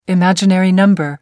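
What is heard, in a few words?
"imaginary number", British English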